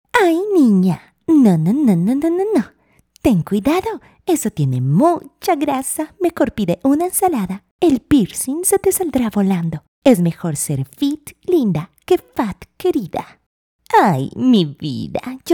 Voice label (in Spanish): Voz chica fila; personajes chica carton